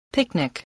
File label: "picnic", voice, English